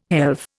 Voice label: "helve", Indian

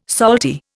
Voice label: "salty", Indian

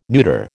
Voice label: "neuter", American

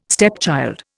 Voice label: "stepchild", Indian

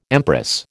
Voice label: "empress", American

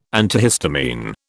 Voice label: "antihistamine", British

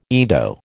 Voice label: "Ido", American

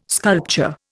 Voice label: "sculpture", Indian

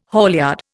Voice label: "haulyard", Indian

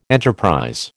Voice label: "enterprise", American

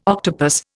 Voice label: "octopus", Indian

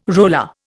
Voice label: "roller", Indian

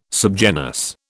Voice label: "subgenus", British

